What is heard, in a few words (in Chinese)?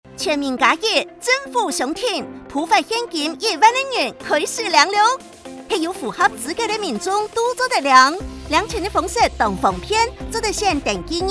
政府相挺政策宣導篇廣播 客語版; 全民+1 政府相挺政策宣導篇廣播-客語版